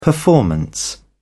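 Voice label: "performance", British English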